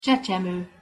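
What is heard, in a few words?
"csecsemo", Hungarian pronunciation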